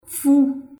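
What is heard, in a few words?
Haitian Creole; four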